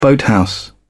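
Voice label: "boathouse", British English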